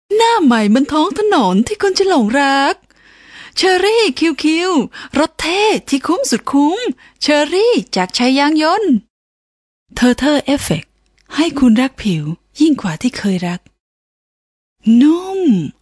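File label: thai female voice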